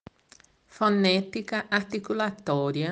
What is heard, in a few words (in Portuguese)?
Dialeto